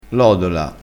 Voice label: "lodola", crespinese

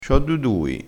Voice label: "dui", crespinese

